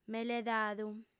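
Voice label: "meledadu" Sardinian, female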